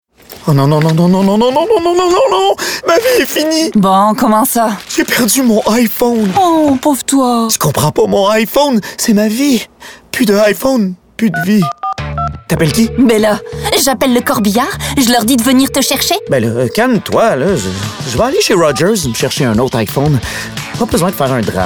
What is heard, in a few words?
Commercial FR; Rogers